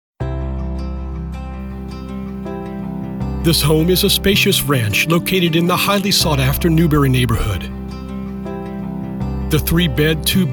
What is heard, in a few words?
Real Estate Voice Over